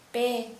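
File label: Santali, female, three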